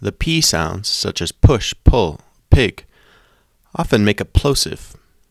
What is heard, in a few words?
Presentations Educational English North American Yo; Young adult